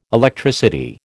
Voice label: "Electricity", American